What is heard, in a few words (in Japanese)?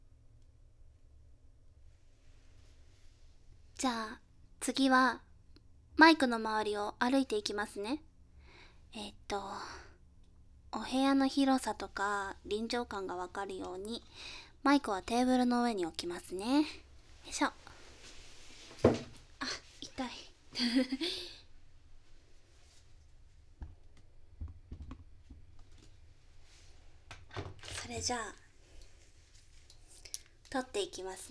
ASMR お部屋紹介 バイノーラル